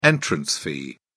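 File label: "entrance fee", English, British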